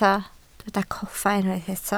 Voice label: "coffa", Welsh

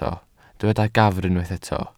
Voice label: "gafr", Welsh

